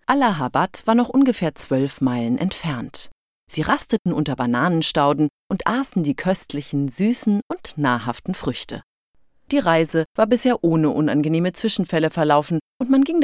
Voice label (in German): Hoerbuch Erwachsene